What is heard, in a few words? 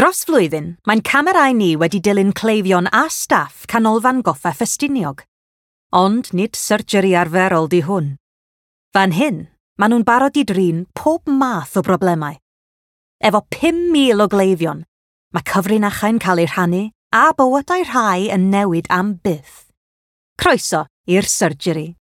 Welsh